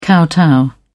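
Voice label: "kowtow", British English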